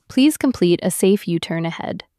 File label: Female U turn